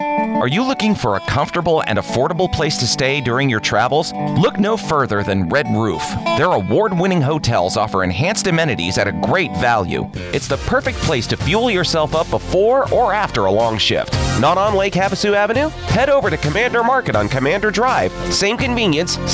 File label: Commercial VO